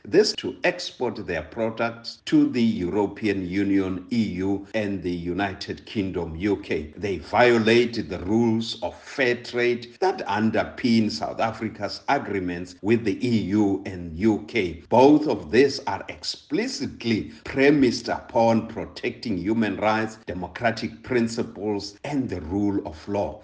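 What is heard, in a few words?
English; Job killing Race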